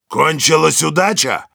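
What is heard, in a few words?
revenge, Russian; Heavy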